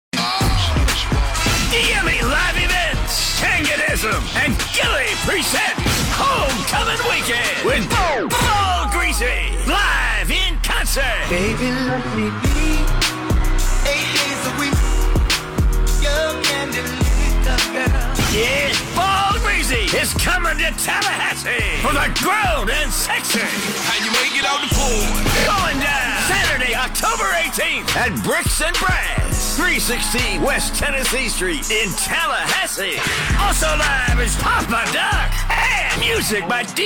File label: commercial